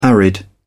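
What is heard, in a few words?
"arid", British English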